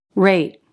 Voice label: "rate" reɪt̚, English